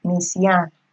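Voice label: "misyarn", Nigerian Pidgin, female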